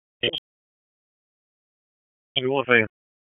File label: FIRE OPS, p25